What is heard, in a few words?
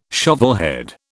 "shovelhead", British